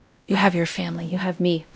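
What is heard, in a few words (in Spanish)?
Voice Acting, es, female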